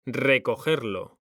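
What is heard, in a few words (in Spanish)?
"RECOGERLO", Spanish; mekanta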